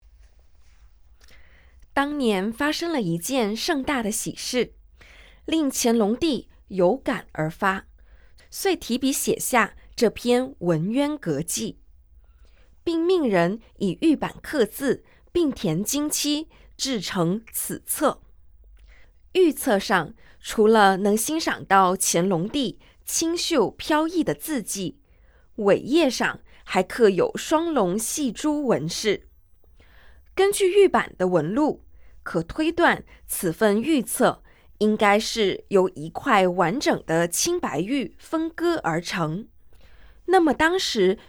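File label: Mandarin, audio guide; architechure collection heritage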